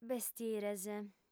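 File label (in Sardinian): "bestires", female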